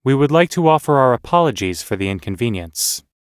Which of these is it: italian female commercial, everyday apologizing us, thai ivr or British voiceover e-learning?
everyday apologizing us